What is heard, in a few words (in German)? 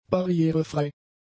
"barrierefrei", German; adjectives